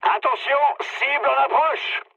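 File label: near waypoint, mood high, French; War Thunder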